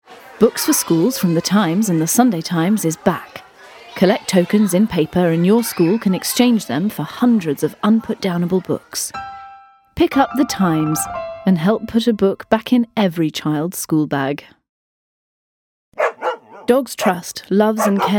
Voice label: Commercial